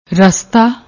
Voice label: "rasta", Punjabi